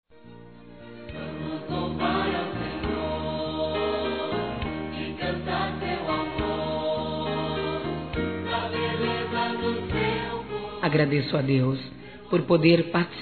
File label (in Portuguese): Trilha ANIV. AMIGO(A) F, Telemensagens Gospel fem; Telemensagens 2017